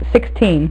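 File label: "sixteen", female; MacCribbage; InterStellar MacCribbage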